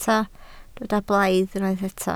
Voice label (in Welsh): "blaidd", Welsh